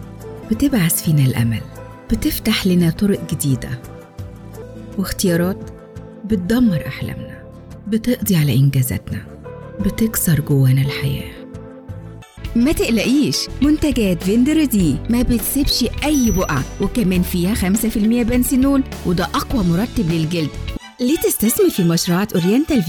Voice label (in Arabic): عامية مصرية